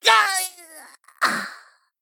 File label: Male Gnome Death